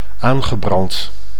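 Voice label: "aangebrand", Dutch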